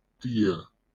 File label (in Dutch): "tee", Tswana; thee-in-andere-talen